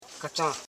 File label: "ka chan", Koro house parts